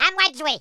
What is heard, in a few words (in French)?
orders, French speech